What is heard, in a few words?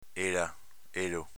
"ela", dialetto veneto; pron. pers. lei, lui